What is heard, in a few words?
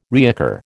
"reoccur", American